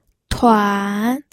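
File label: "tuan3", Mandarin Chinese, pinyin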